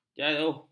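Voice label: "jauh", Malay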